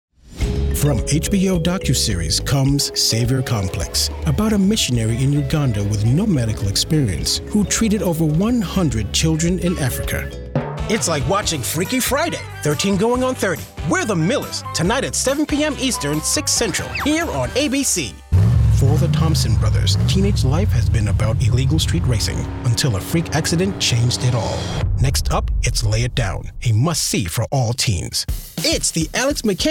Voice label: Promo